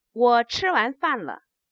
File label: declarative, Chinese